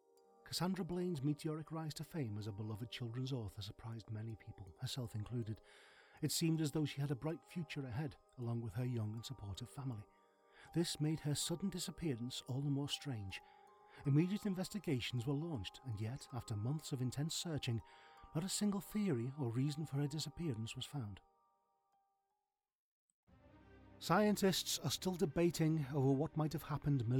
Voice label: NARRATION